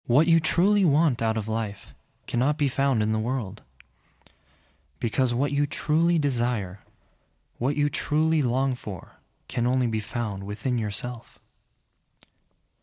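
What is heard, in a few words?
narration, English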